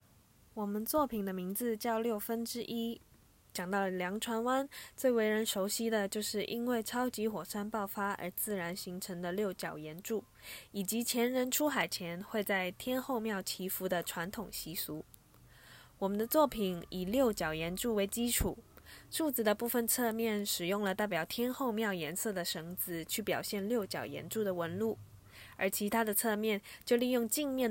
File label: Audio Guide Mandarin; One-sixth Land